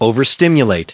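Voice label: "overstimulate", US English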